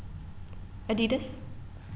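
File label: American, female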